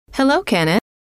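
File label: hello, female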